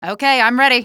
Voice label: ok im ready, female; Half-Life 2

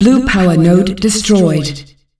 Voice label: Blue powernode destroyed, Announcer Voice, female; Unreal Tournament; ut2004

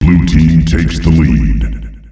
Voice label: "Blue Team takes the lead", announcer male; Unreal Tournament; ut2004

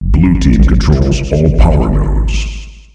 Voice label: Blue team controls all powernodes, announcer male; Unreal Tournament; ut2004

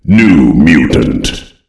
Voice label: new mutant, Announcer EVIL; Unreal Tournament; ut2003

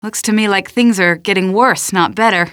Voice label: question, female; Half-Life 2

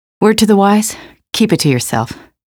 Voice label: pedestrian, female; Half-Life 2; trainyard

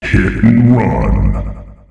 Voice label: Hit and run, announcer male; Unreal Tournament; ut2004

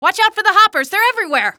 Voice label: hoppers, Voice Lines, female; Half-Life 2; streetwar; barricade

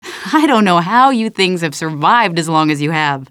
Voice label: question, female

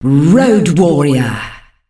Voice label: "Roadwarrior", female announcer; Unreal Tournament; ut2004

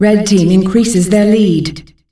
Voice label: Red Team increases their lead, female announcer; Unreal Tournament; ut2004

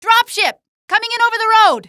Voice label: drop road, female; coast; barn; Half-Life 2 Voice Lines

